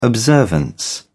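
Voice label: "observance", British English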